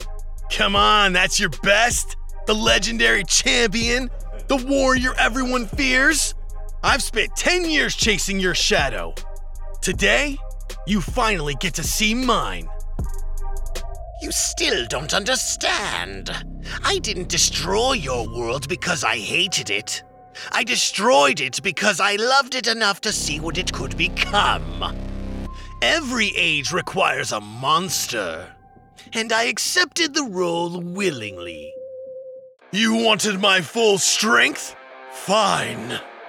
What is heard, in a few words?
Character